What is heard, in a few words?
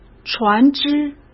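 "船只", Mandarin Chinese; chinese flashcards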